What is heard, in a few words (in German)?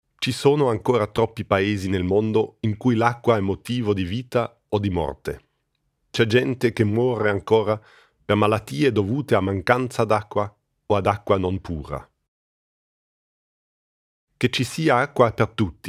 italienisch; wasser unser bojentexte